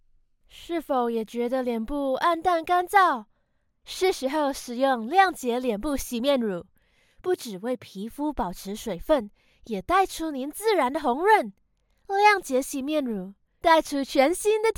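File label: Chinese, commercial; GoLocalise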